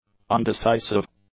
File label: "undecisive", English; adjectives